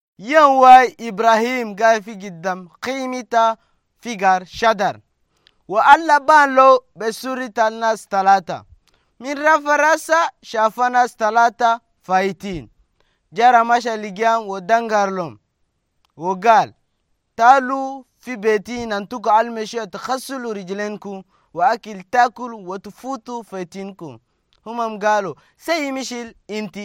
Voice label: Arabic Chadian; Accomplissement de la promesse d'Abraham; Promise to Abraham Fulfilled